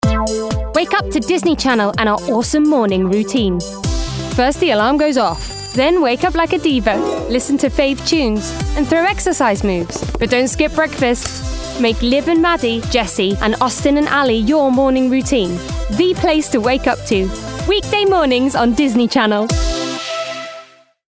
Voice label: PROMO; Disney Channel

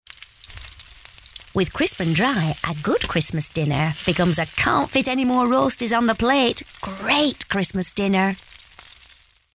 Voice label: commercial; Crisp-N-Dry

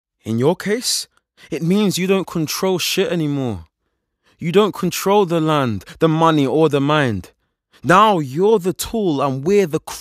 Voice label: Dramatic Reel

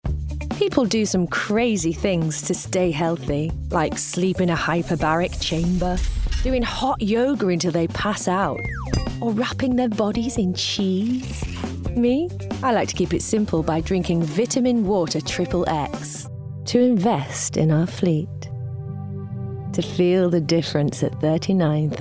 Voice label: Commercial Reel

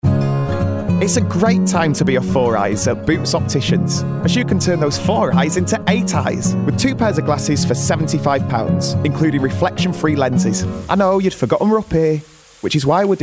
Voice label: Commercial

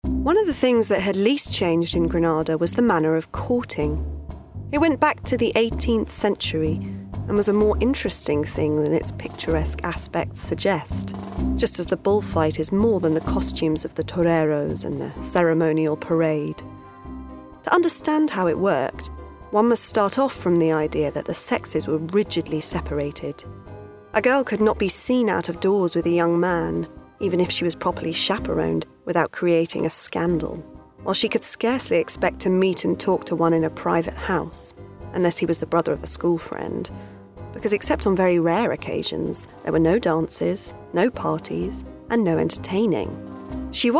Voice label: AUDIOBOOK; South from Grenada

